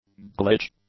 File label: "glitch", English; nouns